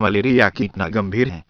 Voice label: hindi